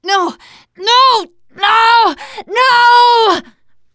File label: grabbed by smoker, teengirl, voice; player; survivor